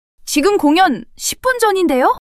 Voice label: surprise, monologue

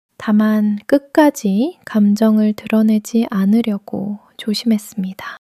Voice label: sadness

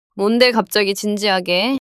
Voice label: neutral, monologue

